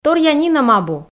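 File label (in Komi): Komi, торъя нинӧм абу, female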